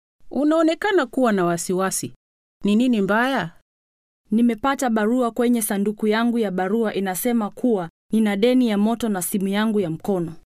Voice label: paying bills swahili

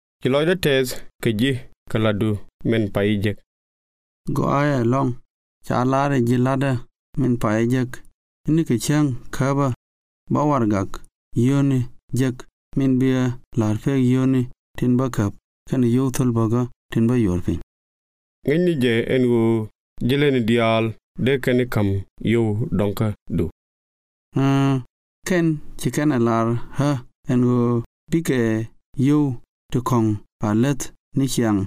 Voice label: nuer; working in australia

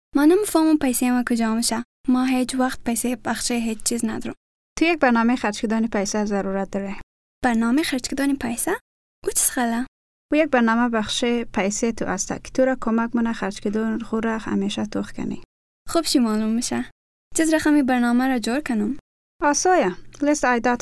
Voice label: household budgets hazaragi

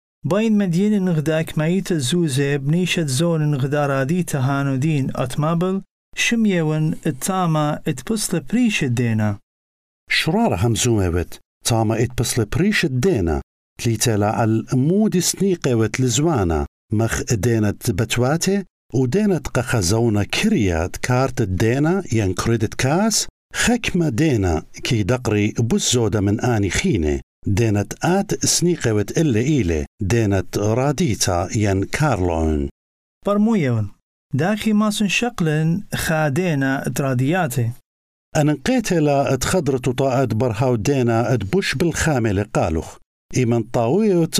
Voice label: types of credit, assyrian

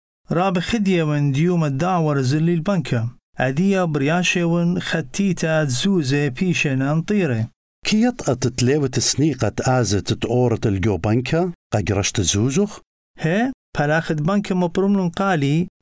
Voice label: banking assyrian

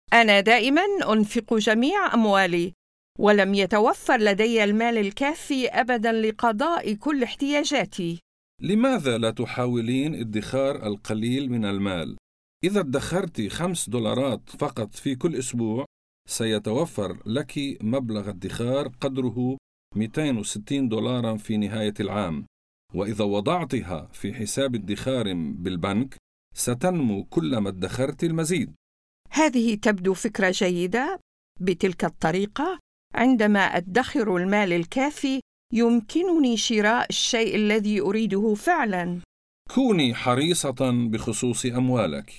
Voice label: saving money arabic

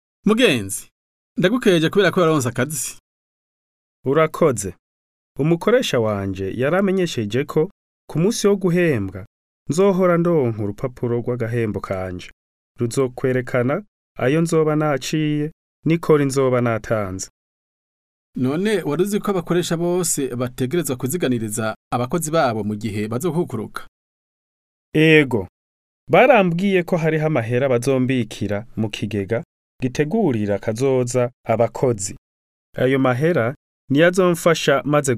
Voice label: kirundi; working in australia

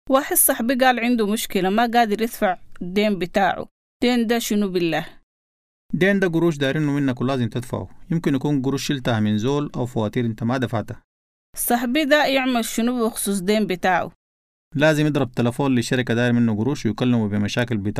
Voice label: debt sudanese

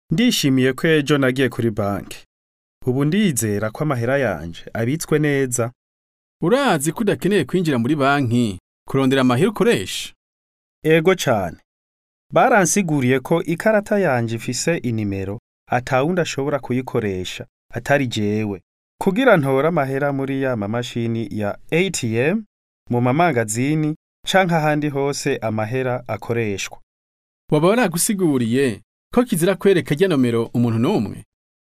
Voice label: banking kirundi

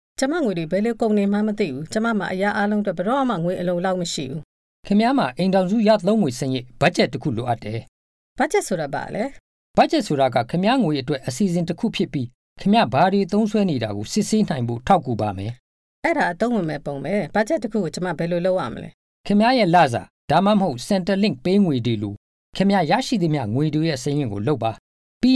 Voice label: household budgets burmese

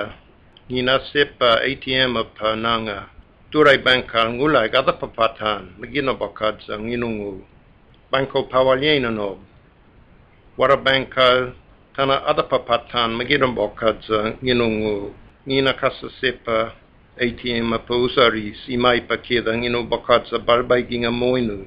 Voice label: kala lagaw ya

